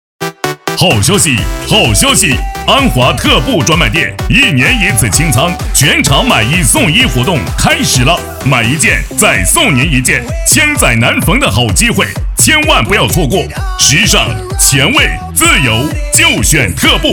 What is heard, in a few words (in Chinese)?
服饰类广告配音